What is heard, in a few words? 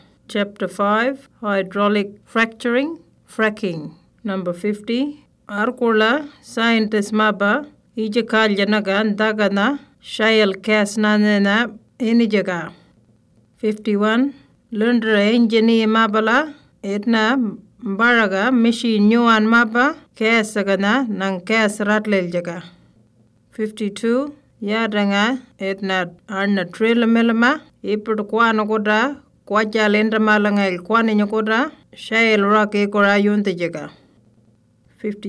Western Arrarnta; Fracking